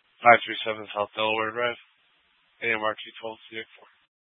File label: FD Dpts VHF 156.240 Patch